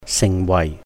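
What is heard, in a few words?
"sìhng wàih", become, Cantonese; 成為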